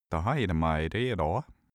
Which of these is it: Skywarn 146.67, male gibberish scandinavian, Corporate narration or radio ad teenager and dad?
male gibberish scandinavian